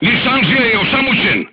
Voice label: voice message gunlayer contused, crew tanks serbian